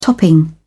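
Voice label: "topping", British English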